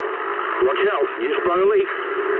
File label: eng damaged water mood low, speech eng